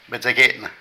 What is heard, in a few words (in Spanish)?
Sorprendido, Zapoteco de Petapa; Emociones; Vocabulary